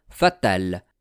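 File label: "fatal", French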